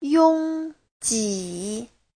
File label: "yong1ji3", Chinese; 拥挤; chen chút, chật chội